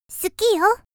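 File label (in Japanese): （少女）好きよ, ASMR; 元気な少女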